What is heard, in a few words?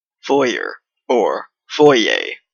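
"foyer", Canadian English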